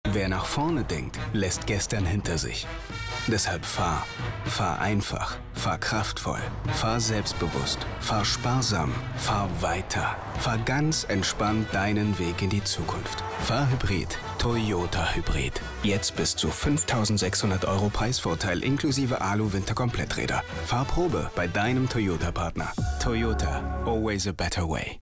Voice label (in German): deutsch; Toyota; LOFT VOICES